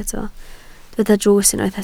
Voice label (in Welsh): "drws", Welsh